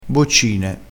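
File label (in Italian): "boccine", dialettale crespinese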